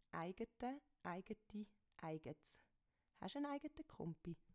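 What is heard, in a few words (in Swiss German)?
"aiget", Swiss German; Wortschatz Kapitel 1; Kapitel 1 Hauptwortschatz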